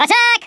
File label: "FIRE", Stiff Upper Lip